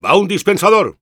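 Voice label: Engineer auto building dispenser, Spanish; "Va un dispensador."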